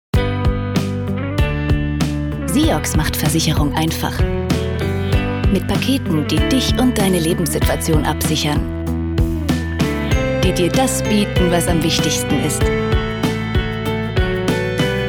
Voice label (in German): K Fremdtake, Freundlich